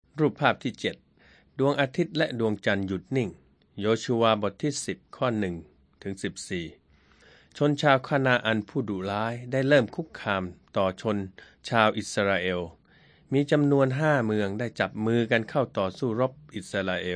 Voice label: thai central